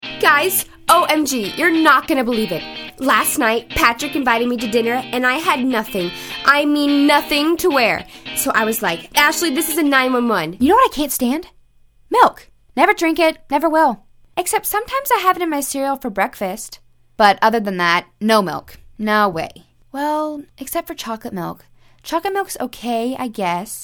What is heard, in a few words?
Commercial, Female voices